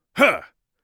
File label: defend, Mage Voice; Mage Voice Pack